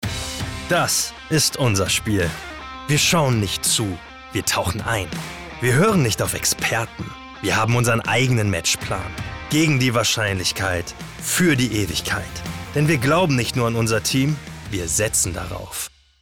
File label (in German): Werbung Sportwetten, Commercial, German